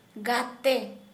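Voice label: Santali, female, friend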